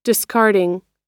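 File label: "discarding", US English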